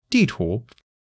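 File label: "di4 tu2", Mandarin Chinese; HSK3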